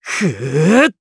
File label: Casting, jp; Siegfried; kingsraid